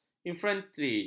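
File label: "infantri", Malay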